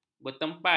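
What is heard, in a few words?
"bertempat", Malay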